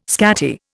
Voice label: "scatty", Indian